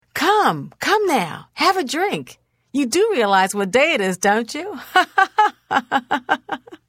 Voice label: Holiday Greeting Redguard Female; Unused

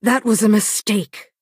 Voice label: female oops; Bosmer

